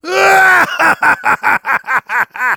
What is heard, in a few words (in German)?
Heavy special completed, de